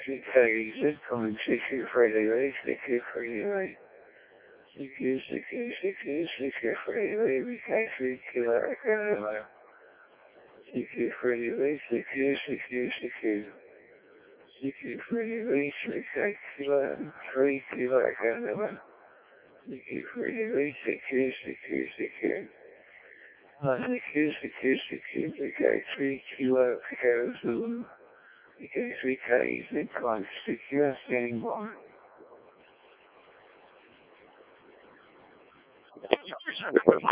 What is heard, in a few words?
decoded speech, 7177KHz